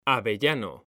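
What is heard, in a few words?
"AVELLANO", Spanish, male; mekanta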